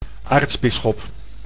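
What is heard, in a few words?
"aartsbisschop", Dutch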